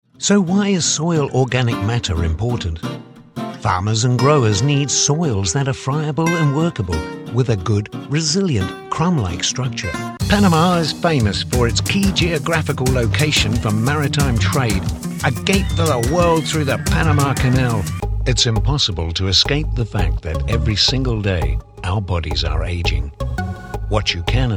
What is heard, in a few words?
Assortment of Accents and types